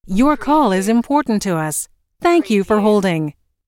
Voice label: US female phone prompt Your call is important to us - Thank you for holding; lynne publishing